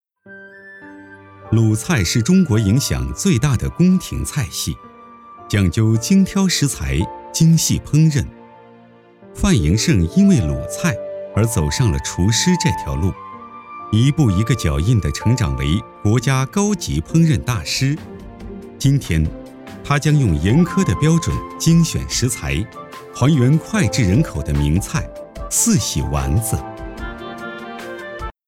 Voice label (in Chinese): 男 纪录片 舌尖类